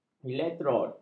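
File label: "elektrod", Malay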